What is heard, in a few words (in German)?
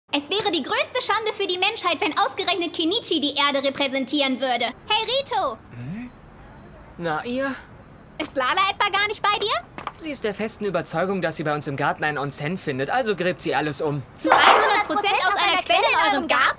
Synchron Anime; Animéserie ToLoveRu - Rolle Mio